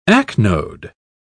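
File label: "acnode", British English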